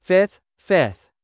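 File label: "fifth 5th", English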